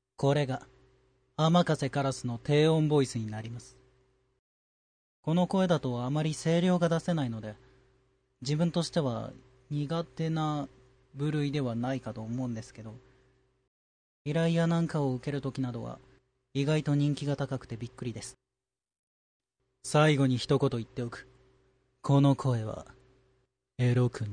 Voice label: low, voice